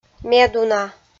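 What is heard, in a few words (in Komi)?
"медуна", Komi, female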